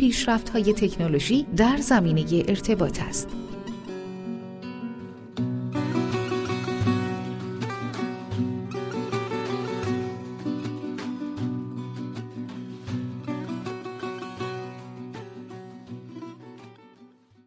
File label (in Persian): تلفن گویای مجازی